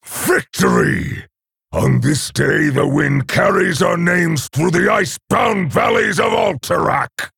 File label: Male Orc Bark